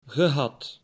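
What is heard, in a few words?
"gehad", Dutch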